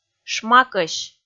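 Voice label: "шмакӧсь", Komi, female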